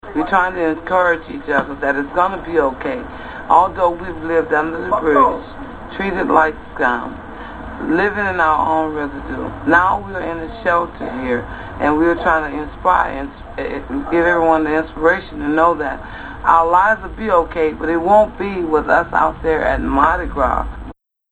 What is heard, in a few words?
new orleans woman, american